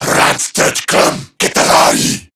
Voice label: Attack, Male

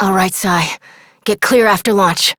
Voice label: Operator Voice; New War; en

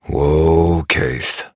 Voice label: Horse Stop Walk Adult; Duviri

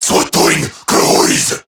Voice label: Reload, Male

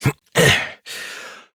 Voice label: Horse Dismount Adult; Duviri

